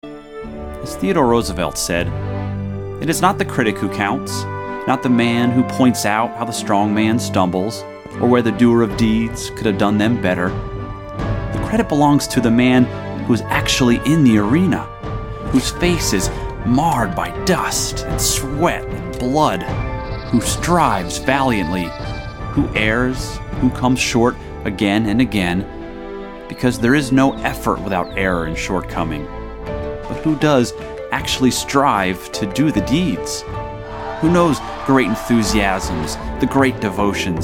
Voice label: Promo, narration promo

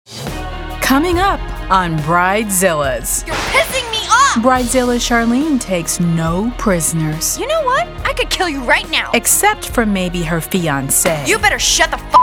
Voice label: Promo

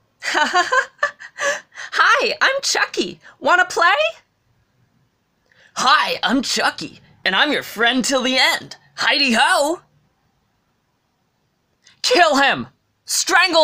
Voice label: Animation

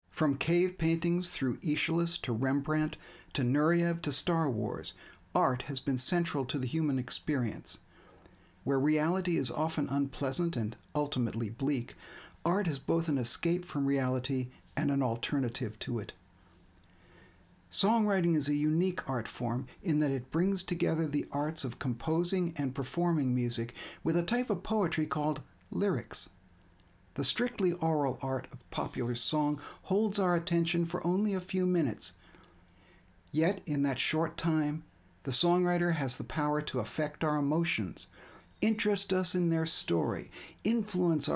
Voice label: Narration; promo

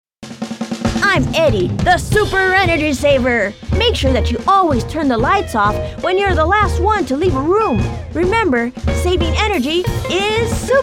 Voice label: commercial

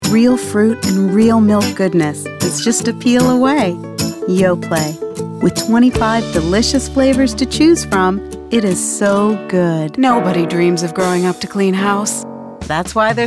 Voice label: Commercial

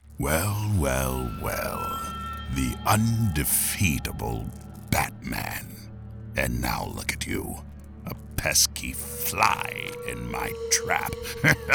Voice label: Animation

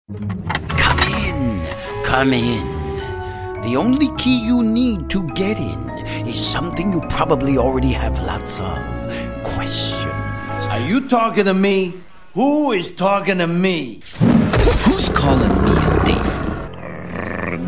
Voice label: Commercial, vo

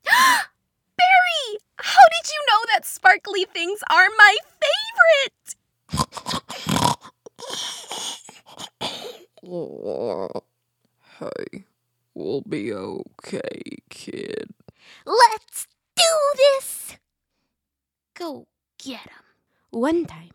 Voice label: Youth

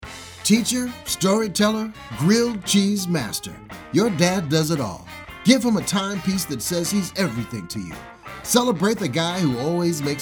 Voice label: Commercial

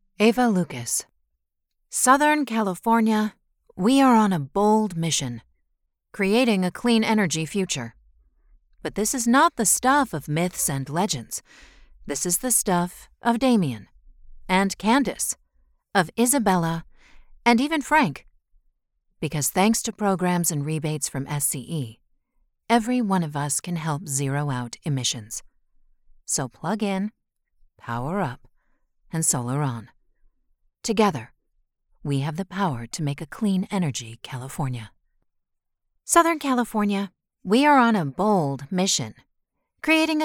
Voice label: Commercial

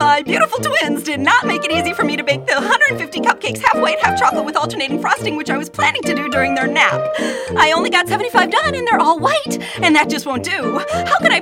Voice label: character

